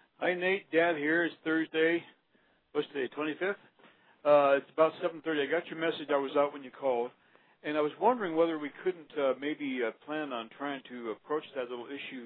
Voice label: dad voicemail

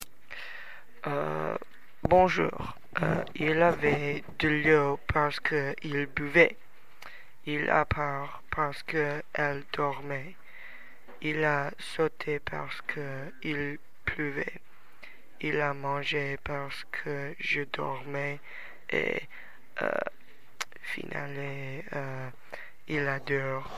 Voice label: french

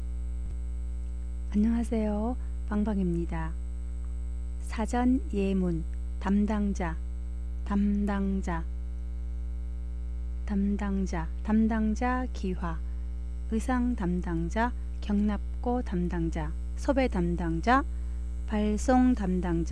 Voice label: korean dictionary sample sentences

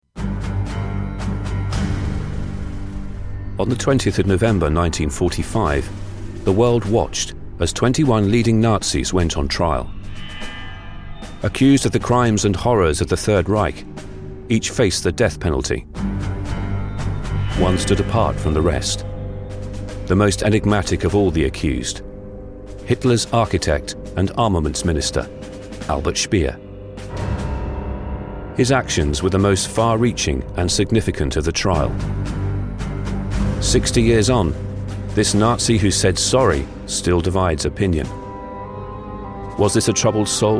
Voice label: documentary; nuremberg demo

